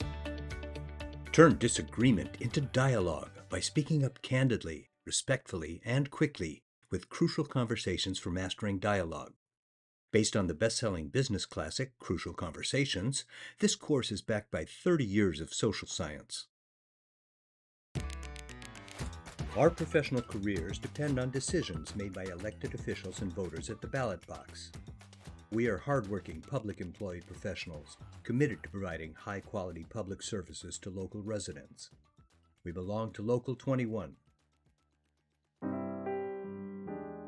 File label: Commercial PSA